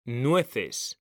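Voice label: "nueces", Spanish; mekanta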